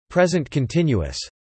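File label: "present continuous" spoken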